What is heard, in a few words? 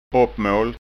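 "oppmalt", Scanian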